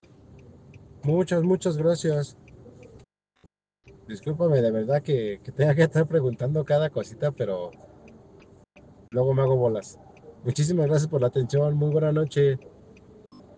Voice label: whatsapp ptt